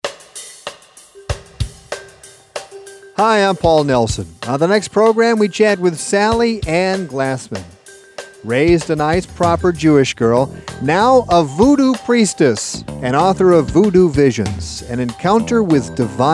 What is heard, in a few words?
Promo